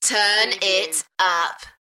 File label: UK female, Turn it up, Enthusiastic; beanstalk audio